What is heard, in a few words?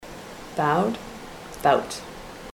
bowed bout, ontario